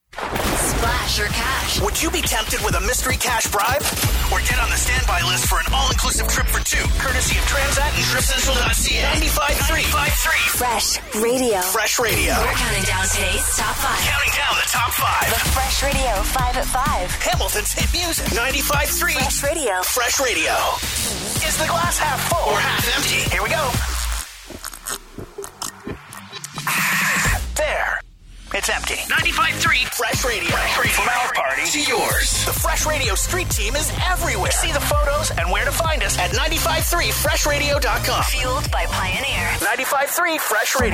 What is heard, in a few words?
imaging; TaDa